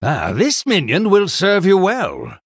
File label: Male Human Recruit Medium Minion